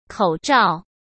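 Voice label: "口罩", Mandarin Chinese; chinese-flashcards; hsk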